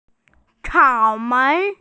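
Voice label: "cao3mei2", Mandarin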